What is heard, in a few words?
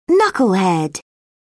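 "knucklehead", English, British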